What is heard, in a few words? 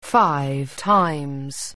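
"five times", English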